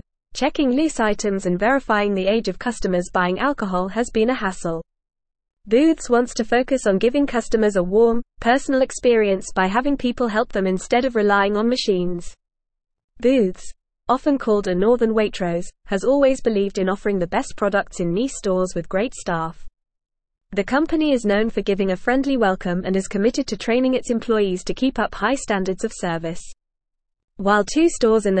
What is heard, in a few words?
English Newsroom Upper Intermediate FAST Reading; Booths removes self service tills to prioritize hum